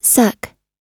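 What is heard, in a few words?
"suck", English; oxford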